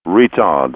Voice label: "retard", GPWS; A32X